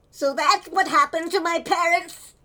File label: Male Reactions